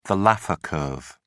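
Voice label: "laffer curve", British English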